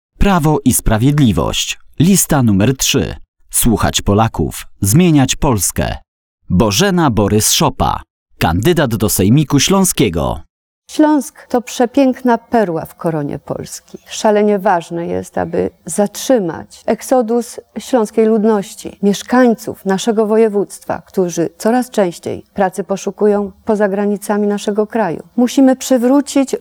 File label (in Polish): Spot radiowy